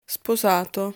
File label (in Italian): "Sposato", Italian